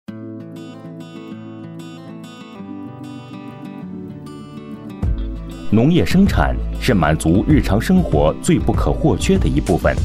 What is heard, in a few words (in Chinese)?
男 解说 专题; 益施帮